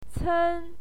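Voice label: "cen", Mandarin Chinese, first tone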